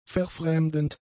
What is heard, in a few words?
"verfremdend", German; adjectives